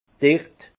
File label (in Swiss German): "suffig", Alsace; vin; goulayant